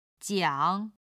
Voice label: "jiang3", Chinese